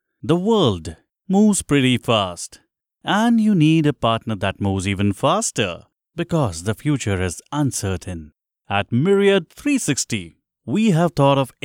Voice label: english indian others

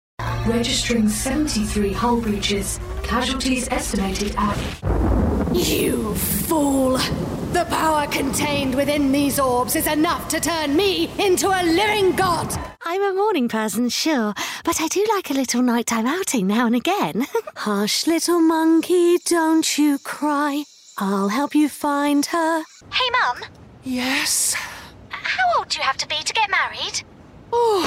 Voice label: english british animation

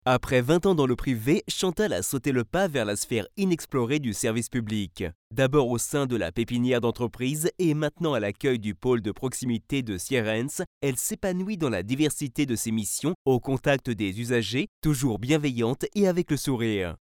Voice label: french corporate videos

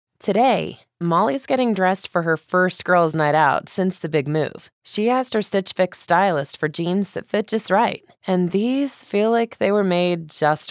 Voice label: english american explainer videos

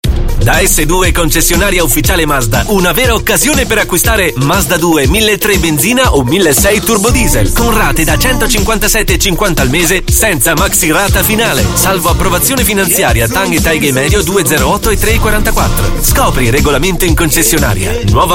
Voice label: italian

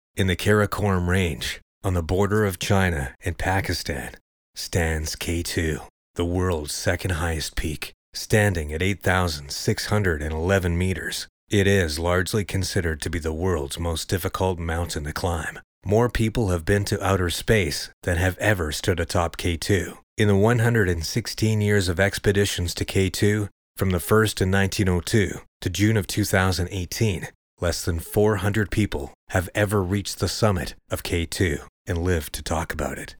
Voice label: english american documentaries

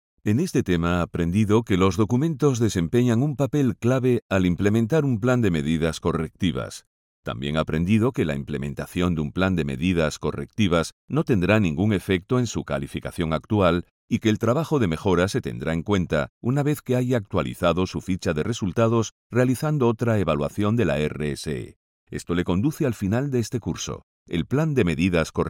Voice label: spanish e-learning

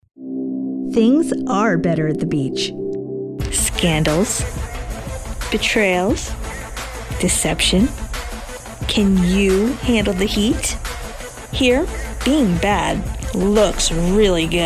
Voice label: english american tv shows

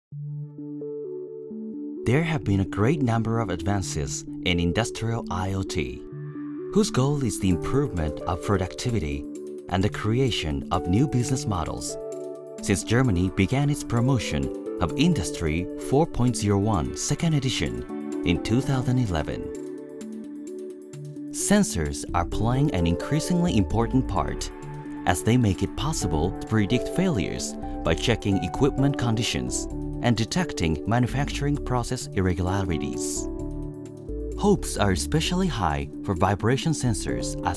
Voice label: english commercial

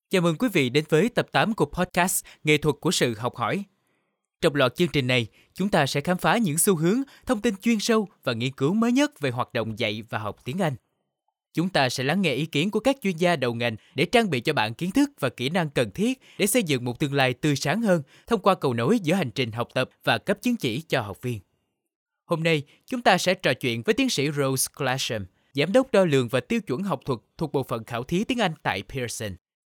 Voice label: vietnamese podcasts

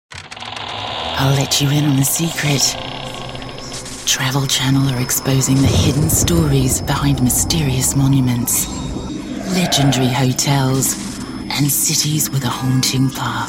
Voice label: english new zealand commercial

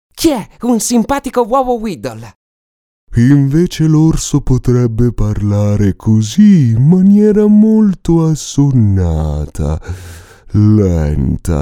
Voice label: italian animation

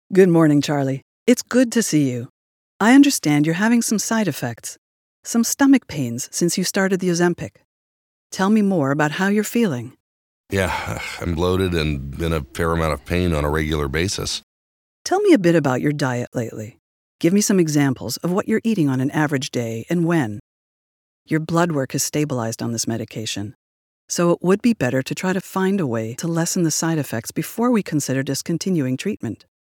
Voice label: English Canadian podcasts

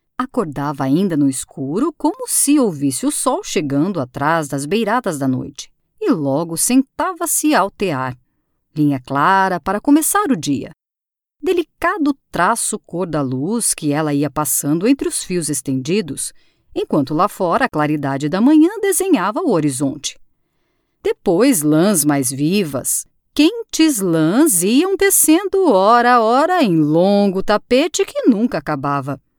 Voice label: portuguese brazilian audiobooks

